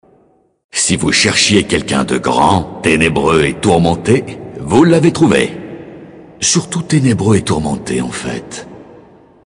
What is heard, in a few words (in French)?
Male Flirt; Elfe du Vide; Tenebreux